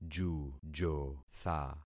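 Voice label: "jujotha", concatenated syllables; Affixing experiment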